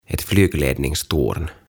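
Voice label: "Ett flygledningstorn", Swedish, "Lennonjohtotorni", Finnish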